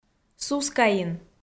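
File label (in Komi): "сускаин", Komi, female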